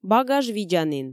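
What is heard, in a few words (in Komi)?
багаж видзанін, Komi, female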